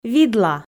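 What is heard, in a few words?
"видла", Komi, female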